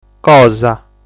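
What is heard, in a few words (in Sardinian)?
"Cosa", Sardinian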